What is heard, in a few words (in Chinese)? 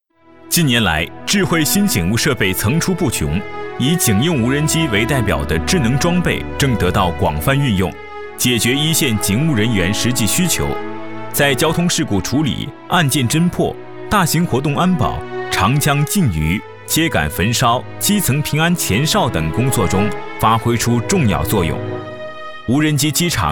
男 宣传 讲述感